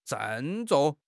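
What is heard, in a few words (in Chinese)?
"郑重", Chinese; HSK6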